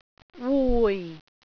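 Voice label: "wui4", Cantonese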